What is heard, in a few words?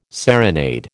"serenade", American